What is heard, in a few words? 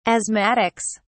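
"asthmatics", British English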